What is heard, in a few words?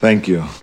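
"thank you", male voice; expletives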